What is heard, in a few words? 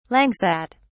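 "langsat", British English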